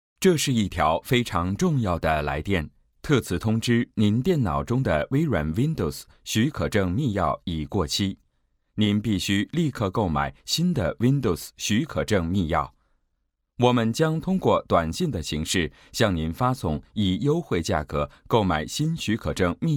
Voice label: CHINESE MALE